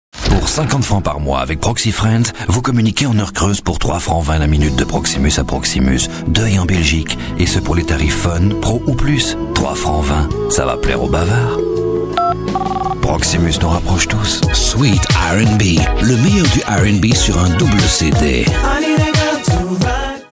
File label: Radio Commercial